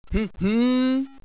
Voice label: nervous, hostage reactions